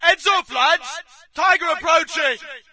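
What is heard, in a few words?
British tiger ahead; video games; player; source engine; dod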